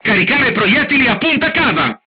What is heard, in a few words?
load HEAT, crew tanks italian; War Thunder